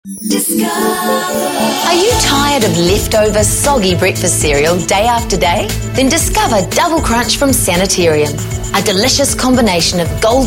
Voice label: TVC Natural Retail

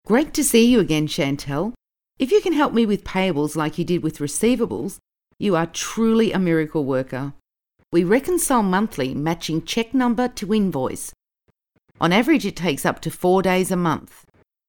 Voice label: In-house Training

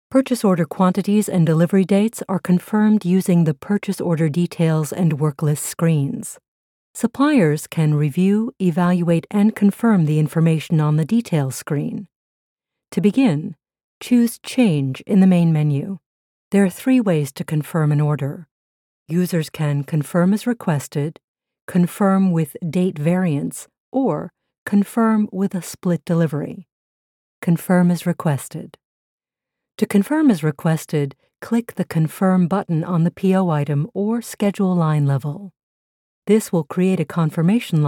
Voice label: eLearning

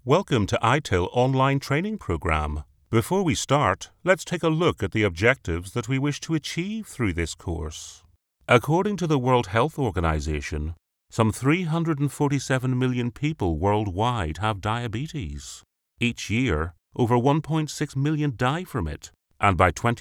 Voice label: E-LEARNING

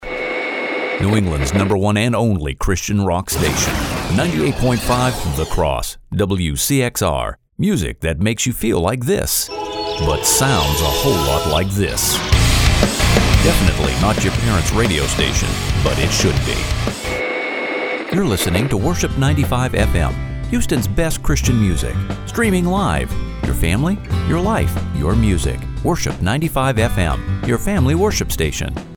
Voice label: Radio Bumper

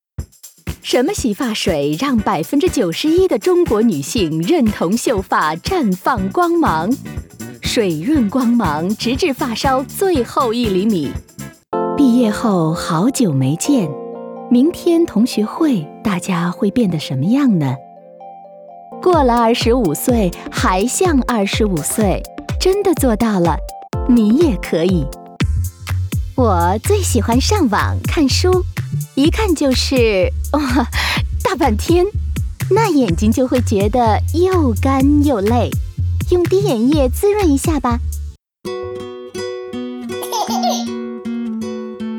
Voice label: commercial short

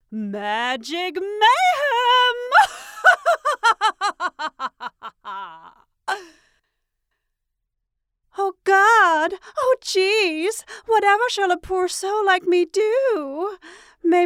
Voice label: Female Galactic Overlord

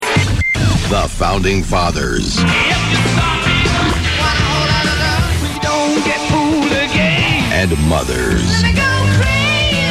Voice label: sweepers classic rock off air; Star 1027

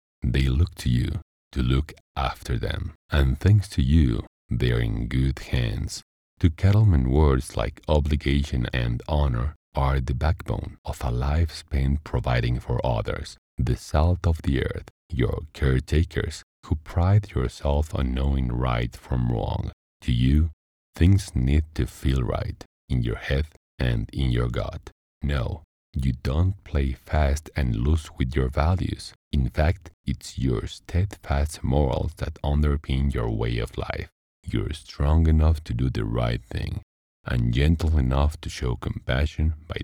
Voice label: English

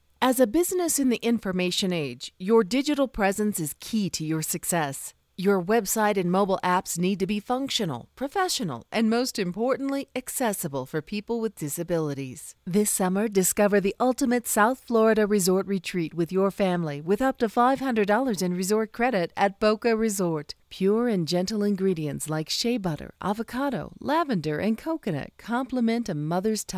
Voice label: Promo

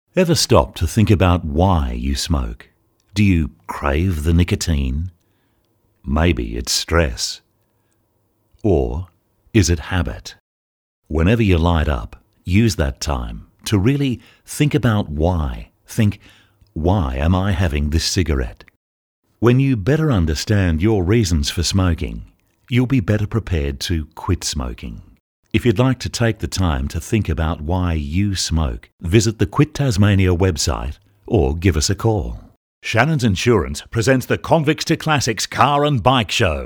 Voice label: TV ads

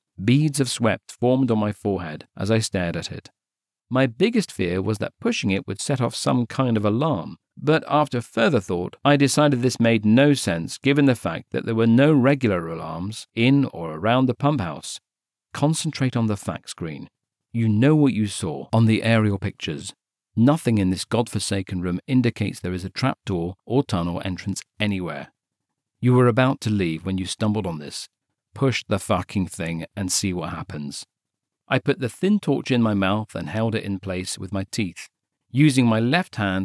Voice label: Marketing Piece

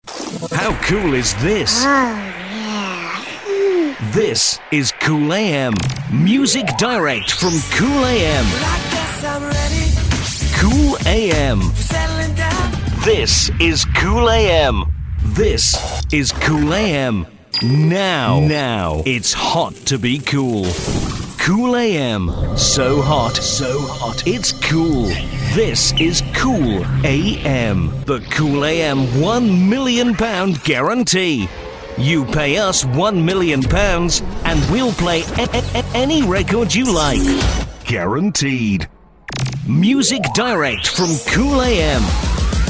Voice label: Imaging

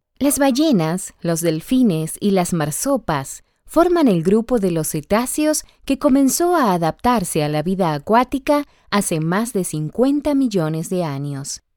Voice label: Narration Documentary Spanish

